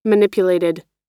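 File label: "manipulated", American English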